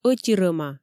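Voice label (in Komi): ӧти рӧма, Komi, female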